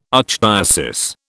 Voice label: "archdiocese", British

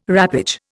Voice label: "wrappage", Indian

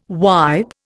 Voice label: "wipe", Indian

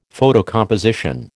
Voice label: "photocomposition", American

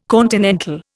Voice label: "continental", Indian

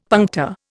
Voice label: "puncta", Indian; Dictionary

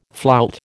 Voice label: "flout", American

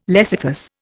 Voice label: "lecythus", Indian

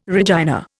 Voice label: "Regina", Indian

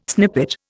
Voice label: "snippet", Indian

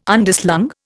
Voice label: "Underslung", Indian

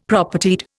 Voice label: "Propertied", Indian; Dictionary

